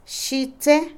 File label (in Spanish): nieve, Otomí, mujer; Vocabulary; INALI